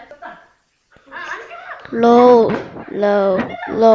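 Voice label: "low", spoken word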